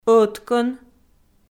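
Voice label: "ӧткӧн" Komi, female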